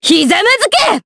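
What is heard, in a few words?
Vox Skill Japanese; Nicky; kingsraid; jp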